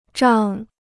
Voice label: "胀", Chinese

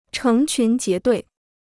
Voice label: "成群结队", Chinese

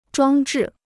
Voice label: "装置", Chinese

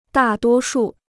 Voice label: "大多数", Chinese

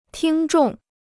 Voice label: "听众", Chinese

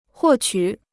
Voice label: "获取", Chinese

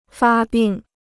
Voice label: "发病", Chinese

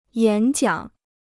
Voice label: "演讲", Chinese

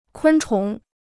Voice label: "昆虫", Chinese

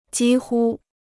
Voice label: "几乎", Chinese

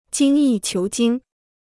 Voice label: "精益求精", Chinese

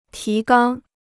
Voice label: "提纲", Chinese

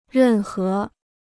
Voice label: "任何", Chinese

